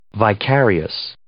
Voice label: "vicarious", English

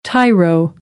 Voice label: "tyro", American, English; oʊ - əʊ

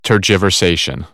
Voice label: "tergiversation", American, English; ɝː - ɜː

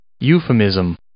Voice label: "euphemism", English

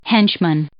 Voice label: "henchman", English

